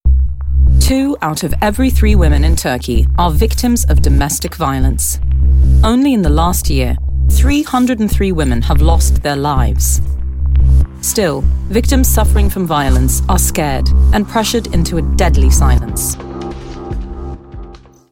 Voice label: Commercial